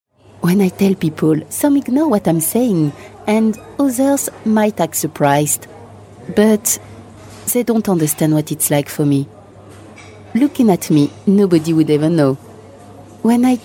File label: french female english accent